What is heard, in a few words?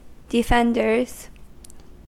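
"defenders", US English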